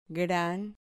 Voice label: "gran", Spanish